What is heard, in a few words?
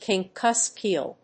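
"Pink cusk-eel", English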